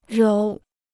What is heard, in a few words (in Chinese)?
"揉", Chinese